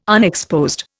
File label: "unexposed", Indian